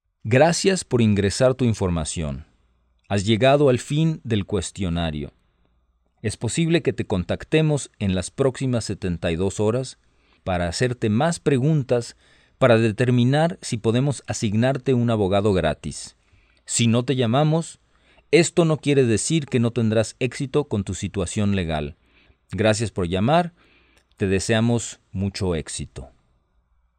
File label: Spanish, hotline